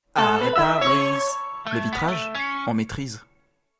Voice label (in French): Logo sonore et slogan; Art et Pare Brise